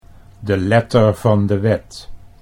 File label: "de letter van de wet", Dutch